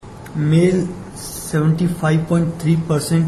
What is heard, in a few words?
male